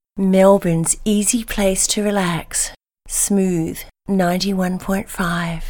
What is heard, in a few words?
Smooth Promo